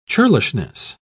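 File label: "churlishness", British English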